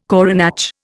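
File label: "coronach", Indian English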